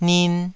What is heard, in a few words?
"nin2", taiwanese